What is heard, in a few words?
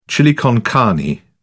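"chili con carne", British English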